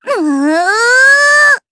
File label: Vox Casting, jp; voices heroes jp; kingsraid